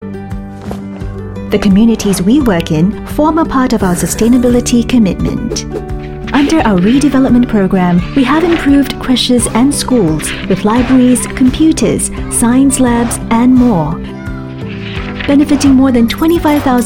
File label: Corporate Campaign Narration Authentic Engaging Warm Natural, female